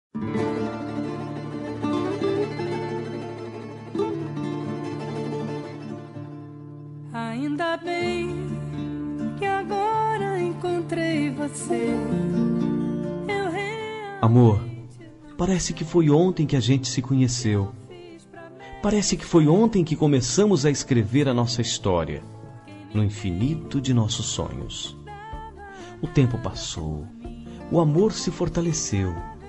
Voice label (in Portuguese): masc; Telemensagem Aniversário de Namoro – Voz Masculina